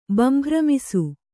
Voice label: "ಬಂಭ್ರಮಿಸು", kannada